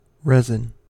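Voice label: "resin", US English; pronunciations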